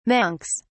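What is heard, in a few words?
"monks", English, British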